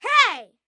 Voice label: zvuk hey detskiy

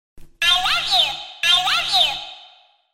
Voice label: zvuk igrushki i love you

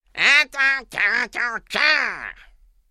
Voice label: peredraznivaet

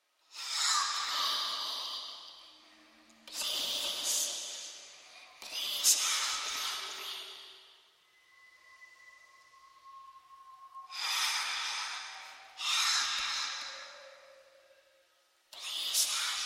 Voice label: shepot rebenka

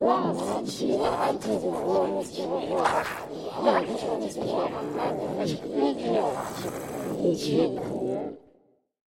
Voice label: strashnyi golos

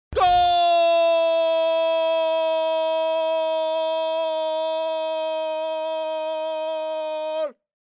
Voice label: mujchina krichit gol

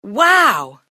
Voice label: jenschina proiznosit "wow"

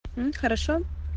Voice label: jenskie golosovye sms

